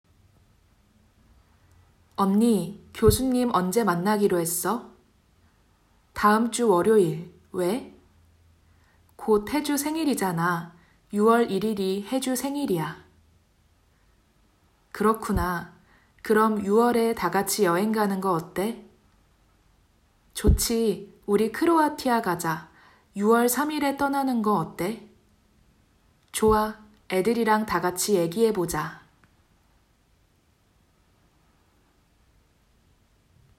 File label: conversation